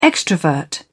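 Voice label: "extrovert", British English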